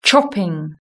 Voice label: "chopping", british english

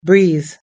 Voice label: "breeze", US English

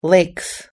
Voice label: "lakes", US English

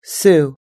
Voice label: "sue", US English

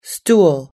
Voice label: "stool", US English

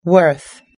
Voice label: "worth", US English

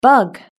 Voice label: "bug", british english

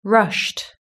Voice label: "rushed", british english

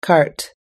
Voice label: "cart", US English

